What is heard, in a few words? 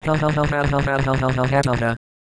laugh, Microsoft Mike